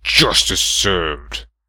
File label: Justice Served, human male heroic